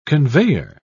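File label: "conveyor", British English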